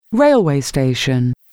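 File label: "railway station", English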